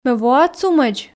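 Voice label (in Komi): ме воа цумӧдз, Komi, female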